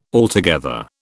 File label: "altogether", British